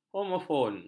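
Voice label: "homofon", Malay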